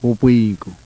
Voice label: bilabial, yanyuwa